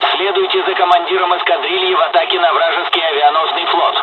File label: fly, dialogs russian; War Thunder; midway